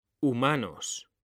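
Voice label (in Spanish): "humanos", Spanish, male; mekanta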